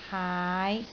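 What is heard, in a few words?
"haai5", Cantonese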